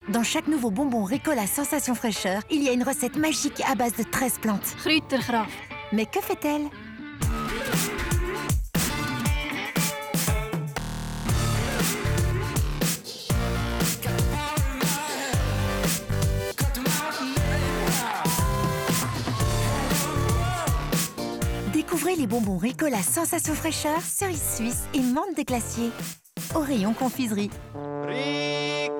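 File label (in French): jeune legere tonique, voix off féminine; Ricola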